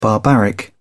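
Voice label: "barbaric", British English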